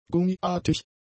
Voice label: "gummiartig", German; adjectives

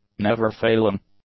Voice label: "never-failing", english; adjectives

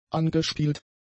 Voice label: "angespielt", German; adjectives

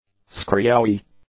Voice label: "screechowi", English; nouns

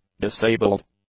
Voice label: "disabled", english; adjectives

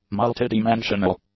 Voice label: "multidimensional", English; adjectives

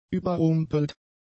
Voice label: "überrumpelt", German; adjectives

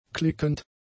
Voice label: "klickend", German; adjectives

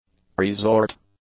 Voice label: "presort", english; adjectives

